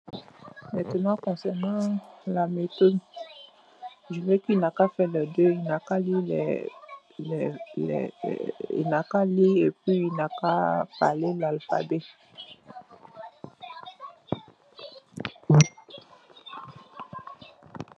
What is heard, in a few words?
voice message